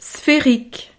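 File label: "spherique", French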